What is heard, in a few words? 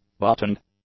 "buttoned", English; adjectives